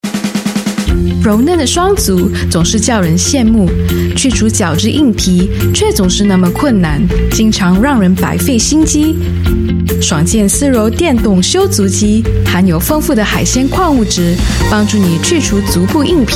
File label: Mandarin China, Female; Scholl